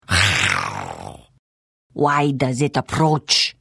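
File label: Hello, Khajiit female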